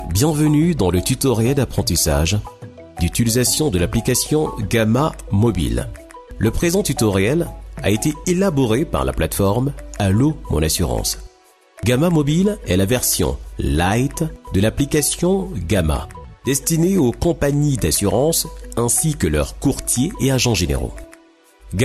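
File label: French African male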